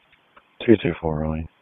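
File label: Raleigh Police Northeast District Dispatch, p25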